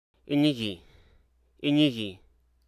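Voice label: Hruso Aka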